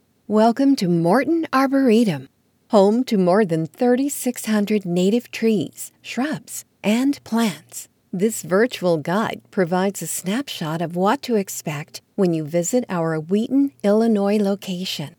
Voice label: E Learning